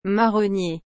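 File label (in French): "marronnier", French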